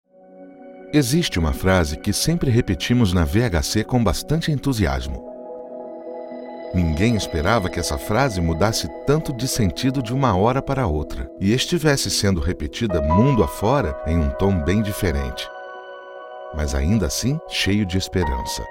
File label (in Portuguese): campanha fique em casa, voice